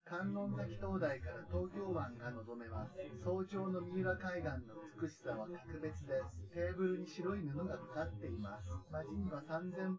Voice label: male synth conv; SiSEC10; underdetermined

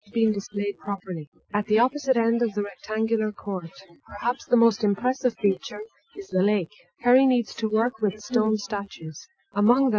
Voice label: female inst src; SiSEC11; underdetermined

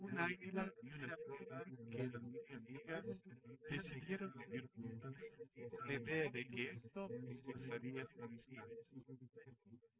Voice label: male

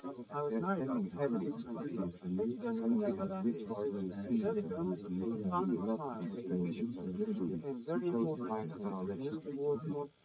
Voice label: male srec 130ms 20cm sim; SiSEC11; underdetermined

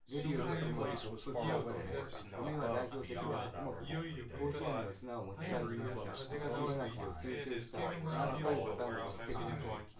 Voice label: male synth conv mix

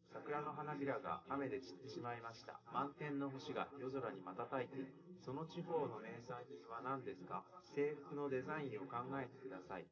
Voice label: male, live rec

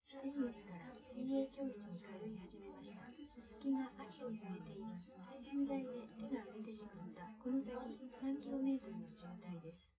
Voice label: female

